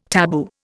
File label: "tabu", Indian